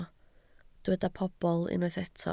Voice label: "pobl", Welsh

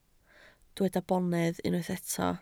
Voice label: "bonedd", Welsh

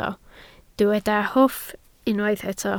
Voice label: "hwff", Welsh

